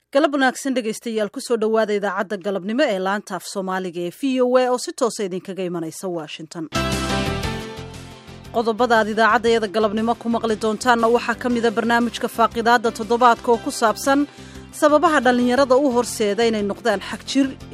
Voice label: female somali

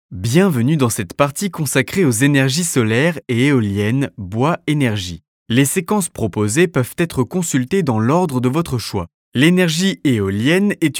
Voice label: french

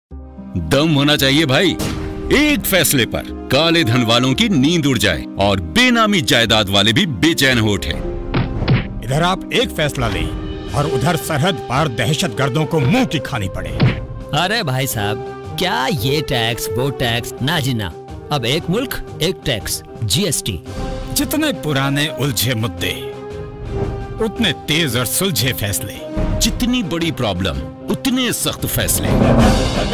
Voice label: Male

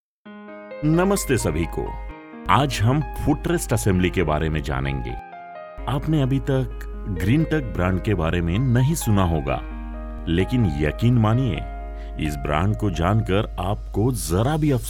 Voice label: hindi; Greentek

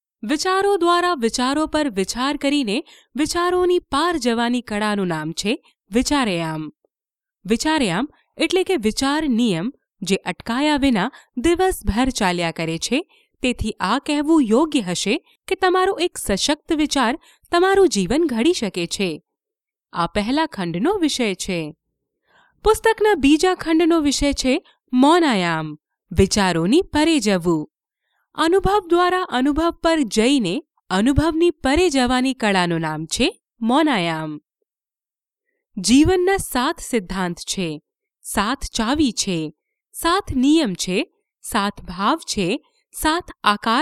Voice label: gujarati

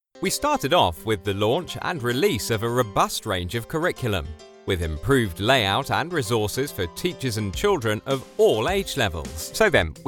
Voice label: corporate

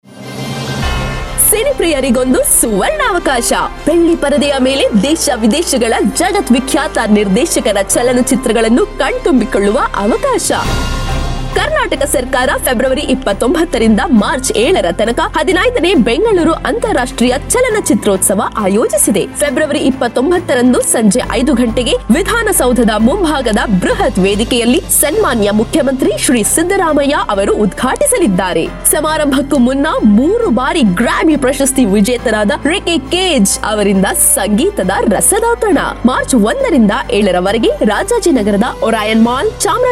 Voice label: kannada

